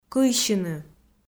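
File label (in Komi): "кыйсьыны", Komi, female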